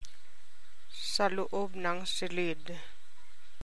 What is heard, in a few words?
TAGALOG